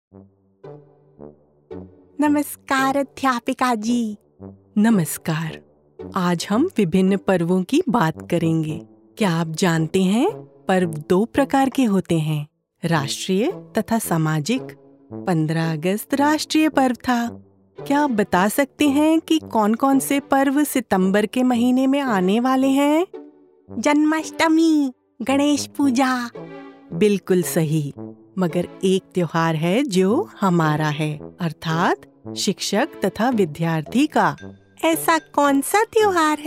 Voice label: Hindi Audiobook; Adhyapika